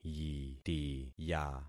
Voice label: "yitiya", concat syllables; Affixing experiment